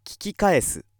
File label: male